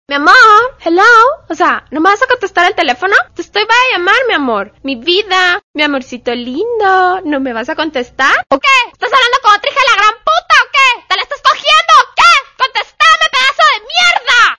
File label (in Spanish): tono mujer nerviosa; whatsapp